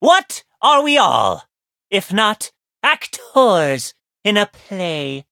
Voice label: levelup, en; Cookie Run